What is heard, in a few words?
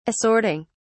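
"assorting", British English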